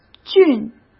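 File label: "俊" Mandarin Chinese; hsk; chinese-flashcards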